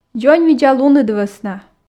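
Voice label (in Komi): "дзоньвидзалуныд вӧсна", Komi, female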